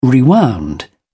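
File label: "rewound", British English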